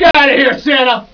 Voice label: happy; jerma